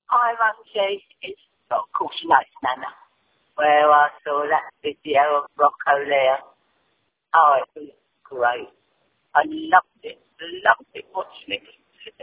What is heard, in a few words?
voicemail